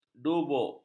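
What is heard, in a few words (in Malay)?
"dubuk", Malay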